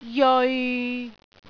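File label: "jeoi6", Cantonese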